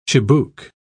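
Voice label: "chibouque", British English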